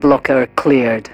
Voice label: advisor notification tile blocker clear, militarist; Stellaris; ethics